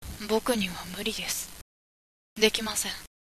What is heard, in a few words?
boy